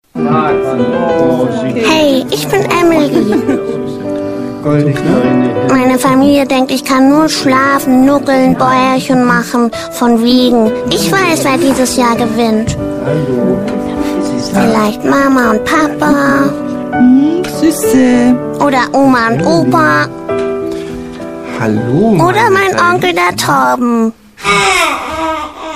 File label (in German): Doku; ARD; Plusminus